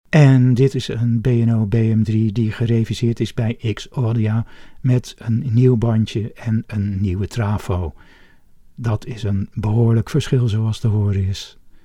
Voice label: B&O BM3 sound NL